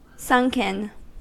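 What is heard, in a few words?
"sunken", US English; pronunciations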